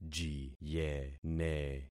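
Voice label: "jiyene", concatenated syllables; Affixing experiment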